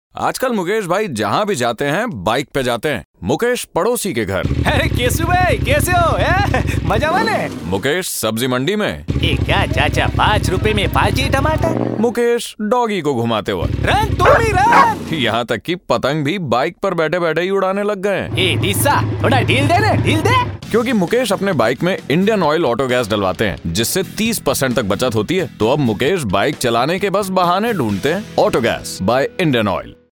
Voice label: hindi radio, male voice; iocl auto gas

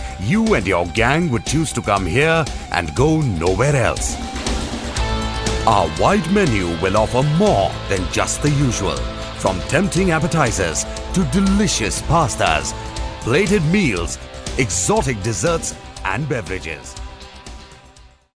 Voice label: english pizza, male voice; pizza hut

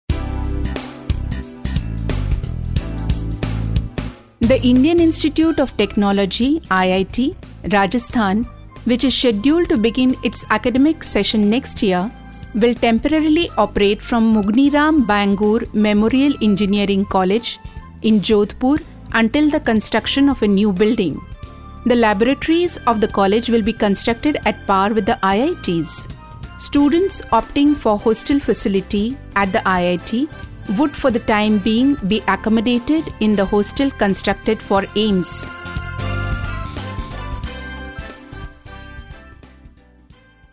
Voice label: ENG VO, female voice

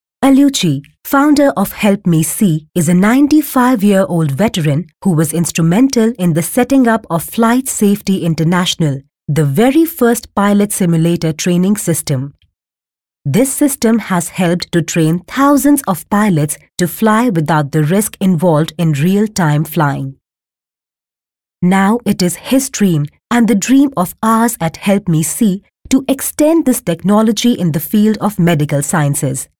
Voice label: english delhi based voice, female voice

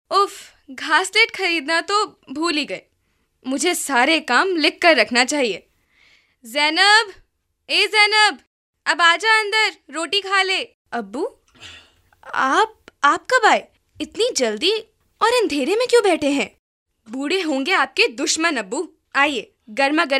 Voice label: radio, female voice

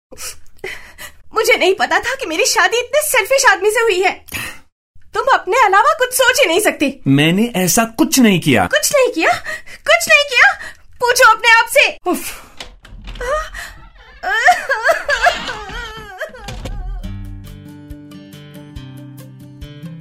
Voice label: spot music, female voice

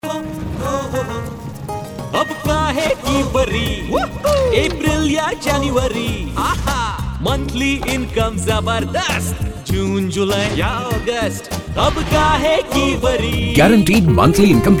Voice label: english, male voice

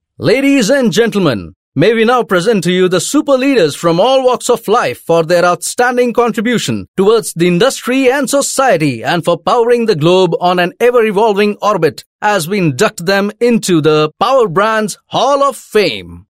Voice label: Announcement English, male voice

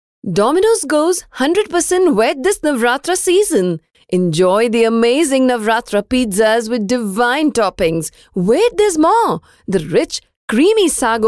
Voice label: female voice English; Dominos

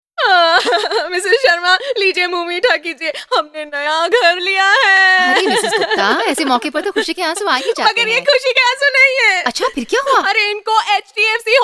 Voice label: female voice; HDFC

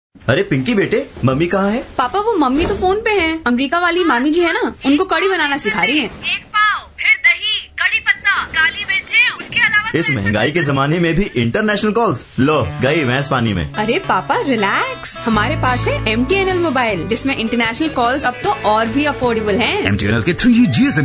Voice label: female voice ON AIR VO HINDI

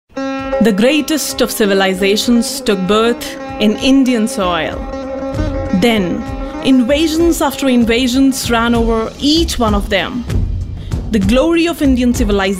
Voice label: Lead voice English neutral, female voice

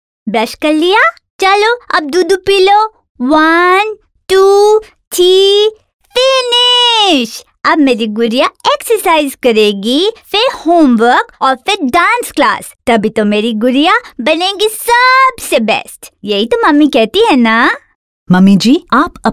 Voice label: female voice Hindi; Osto calsium

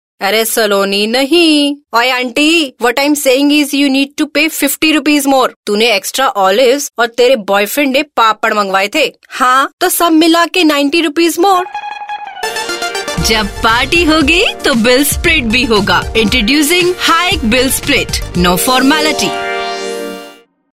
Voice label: hindi girl character & vo, female voice; Hike

